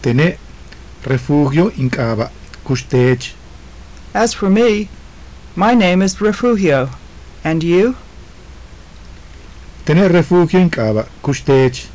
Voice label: conversation, maya; JUNP'IIT MAYA